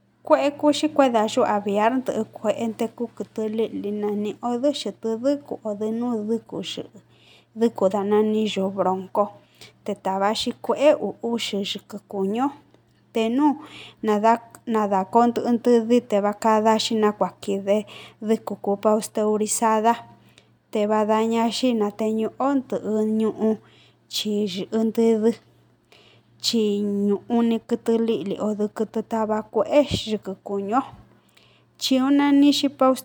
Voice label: Mixteco alto; h5n1-bird-flu-resources; Pajarete